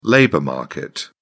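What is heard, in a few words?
"labor market", British English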